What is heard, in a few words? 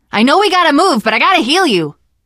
heal other, teen girl; voice; source engine; left 4 dead 2; survivor